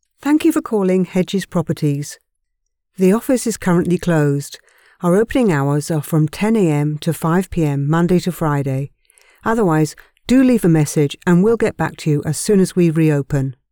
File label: Voicemail, Phone Greetings, British, Female Voice Over; On Hold